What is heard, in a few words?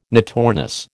"notornis", American